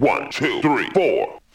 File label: "1 2 3 4" SCRATCH; numbers and letters